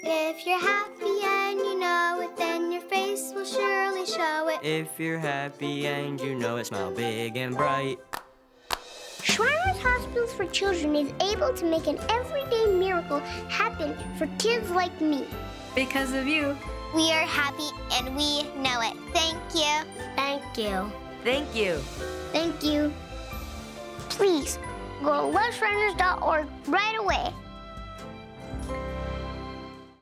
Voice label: Radio; Happy And You Know It